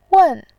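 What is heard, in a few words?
"wen", Mandarin Chinese, tone 4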